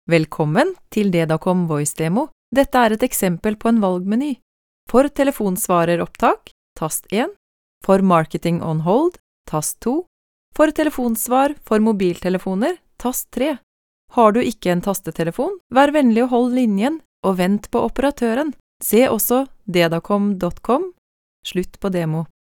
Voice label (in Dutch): Voice over Noors vrouw